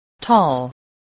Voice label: "tall", English